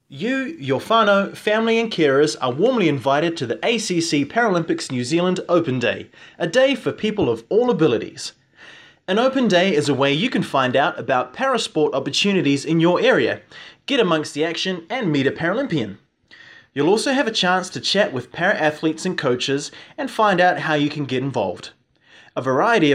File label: Voiceover